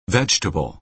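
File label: "vegetable", American English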